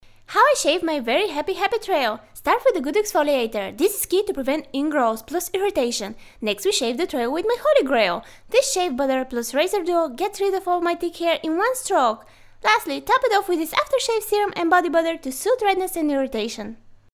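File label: Bulgarian English female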